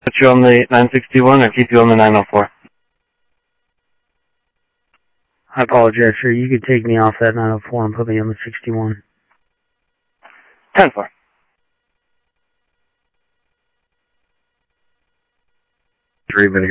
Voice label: scanner audio, Sheriff